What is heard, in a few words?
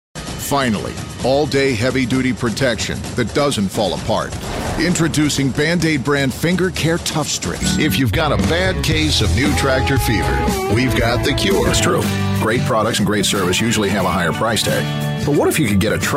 Commercial Demo